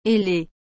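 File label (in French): "ailé", French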